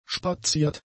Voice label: "spaziert", german; adjectives

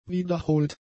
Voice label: "wiederholt", German; adjectives

